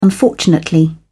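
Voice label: "unfortunately", English, uk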